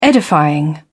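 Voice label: "edifying", British English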